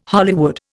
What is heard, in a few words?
"Hollywood", Indian